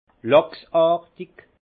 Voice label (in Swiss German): "lachsartig", alsace; vin; saumon